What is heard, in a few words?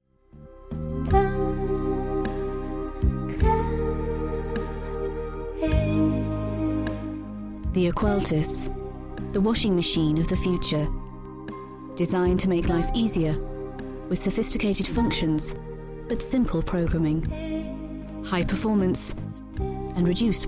Female English